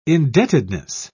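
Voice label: "indebtedness", British English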